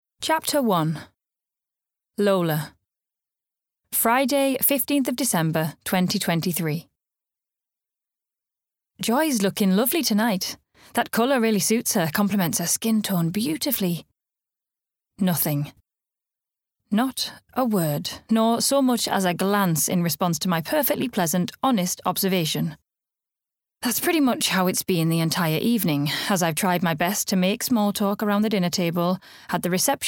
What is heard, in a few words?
Audiobook; TheSchoolGates